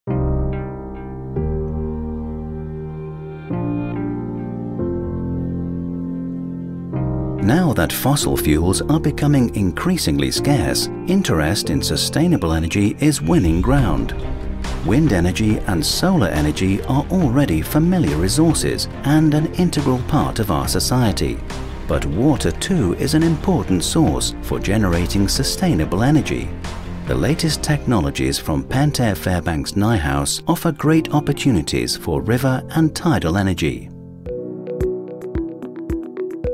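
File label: British English industrial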